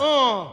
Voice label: "uh", male